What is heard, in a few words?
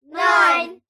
human children x5 under 10 english say nine; zapsplat